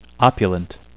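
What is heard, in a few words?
"opulent", English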